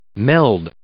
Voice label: "meld", English

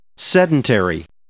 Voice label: "sedentary", English

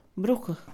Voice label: "brujo", Mixe, Mujer; Religión; INALI

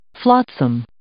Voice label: "flotsam", English

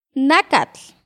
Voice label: "nakatl", Náhuatl; carne

